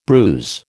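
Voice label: "bruise", American English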